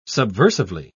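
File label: "subversively", British English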